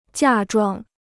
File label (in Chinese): "嫁妆", Chinese